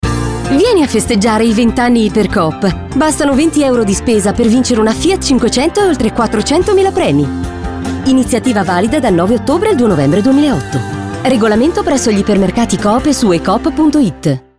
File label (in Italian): Giovane naturale; IPERCOOP